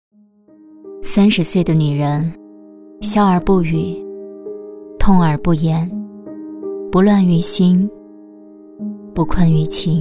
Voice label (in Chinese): 女-旁白-成熟; 三十岁的女人